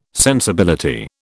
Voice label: "sensibility", British

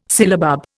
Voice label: "sillabub", Indian

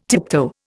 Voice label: "tiptoe", Indian

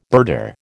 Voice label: "birder", American

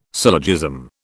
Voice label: "syllogism", British

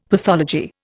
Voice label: "pathology", Indian; Dictionary

